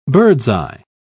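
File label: "bird's-eye", British English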